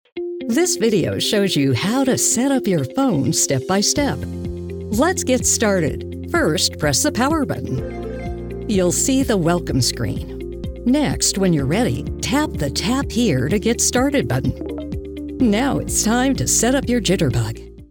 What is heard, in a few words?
Explainer